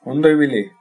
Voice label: bon voyage, basque

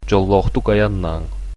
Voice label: bon voyage, sakha

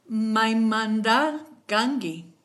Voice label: where from, kichwa

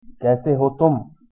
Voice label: how are you, hindi; कैसे हो तुम?; kaise ho tum

